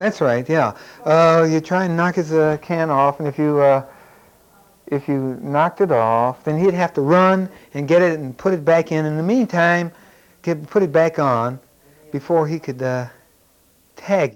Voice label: Conversation with 41 year old black male Detroit Michigan, american english dialect recordings